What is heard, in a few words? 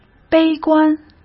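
"悲观", Mandarin Chinese; hsk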